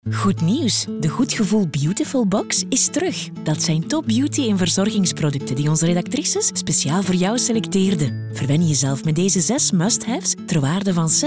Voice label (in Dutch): Goed Gevoel VO commercieel